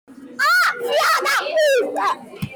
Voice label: fala rapidaaa

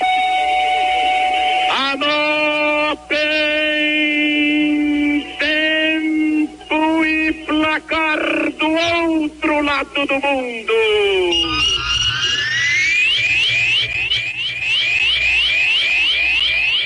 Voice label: vinheta tempo e placar; jorge cury

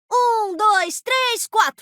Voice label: voz um dois tres quatro; teemo; ptbr

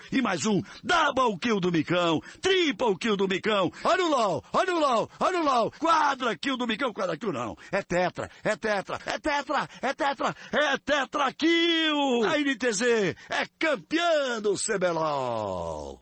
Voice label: narrando lol; galvao

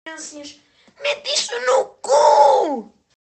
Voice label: nervoso

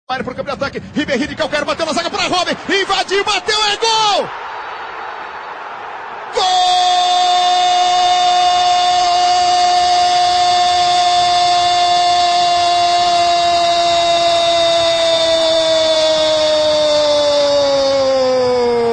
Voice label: narracao; borussia dortmund 1 x 2 bayern de munique; everaldo marques

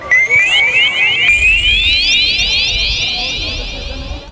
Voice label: vinheta de tempo e placar radio; radio gaucha

